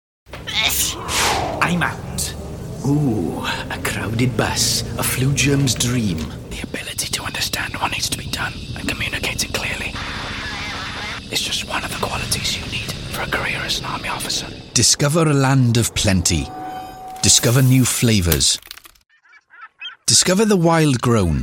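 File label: British English Commercial; GoLocalise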